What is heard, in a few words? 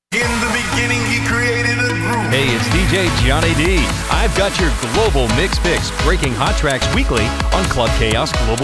Promo Strictly Dance Radio